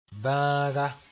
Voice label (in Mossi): "bãaga", moore; disease